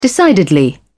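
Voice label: "decidedly", English, British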